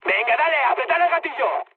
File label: attack position, mood high, speech; War Thunder